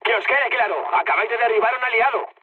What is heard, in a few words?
player killed ally, mood high, speech; War Thunder